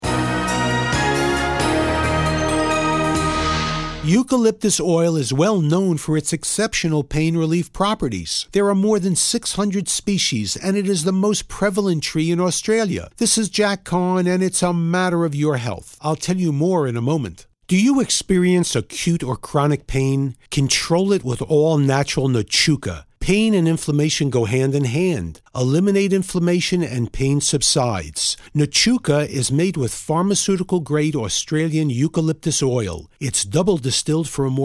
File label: Infomercial; Nateuca